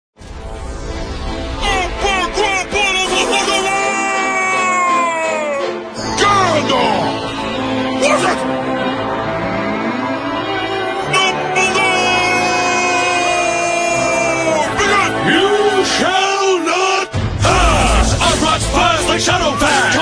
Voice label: rap battle; gandalf vs dumbledore

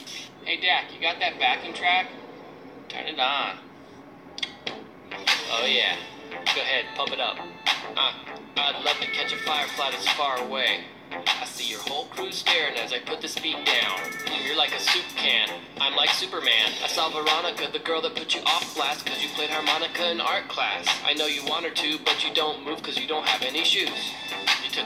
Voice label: rap

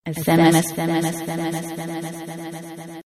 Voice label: girl voice sms; ringtones

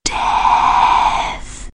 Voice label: Whisper

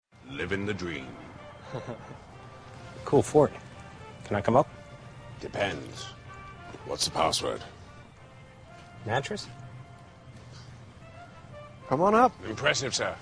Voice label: commercial, ringtones; heetos commercial

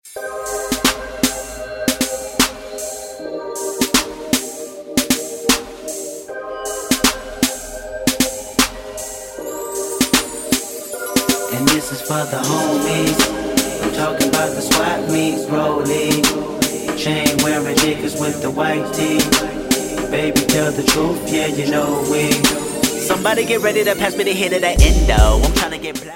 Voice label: Is Calling Rap

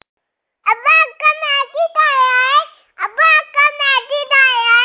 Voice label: sms urdu; children

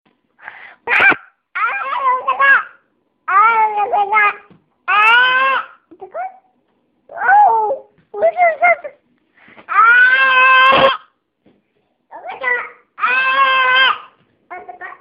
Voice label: baby talks

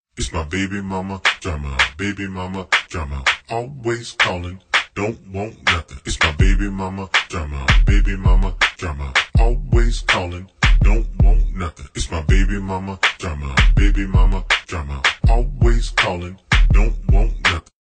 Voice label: baby calling mama

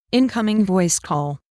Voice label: 3d voice call; ringtones; hip-hop

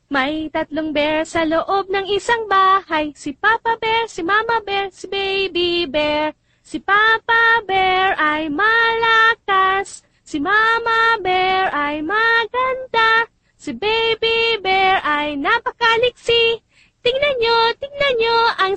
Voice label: Tagalog; games; ringtones

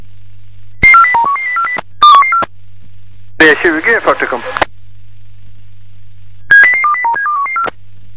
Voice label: polis radio; alternative

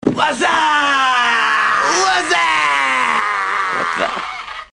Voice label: wazzuuuppp

